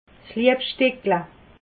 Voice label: "liebsteckel", alsace

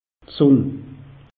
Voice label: "sunne", alsace; meteo

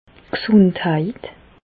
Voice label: "gsundheit", Alsace, Bas Rhin; medecine; santé

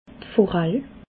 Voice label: "forall", alsace

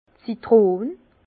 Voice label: "zitron", Bas Rhin; vin; citron

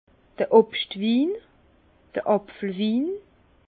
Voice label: "obstwin", Bas Rhin; vin; vin de fruits vin de pommes cidre

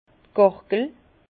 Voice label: "gargel", Bas Rhin; vin; jables

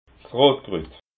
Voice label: "rotkrut", alsace

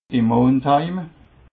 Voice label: "mond", alsace

